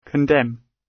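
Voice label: "condemn" British; Design and innovation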